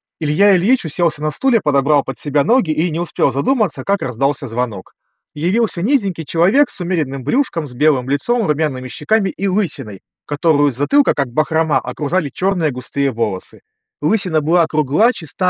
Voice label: Mic; Запись с микрофона гарнитуры; Huawei FreeBuds 7i